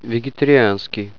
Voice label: vegetari, russian